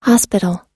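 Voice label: "hospital", American English; hospital /hɑ́:spitl; 病院、(昔の)慈善施設、養育院、収容所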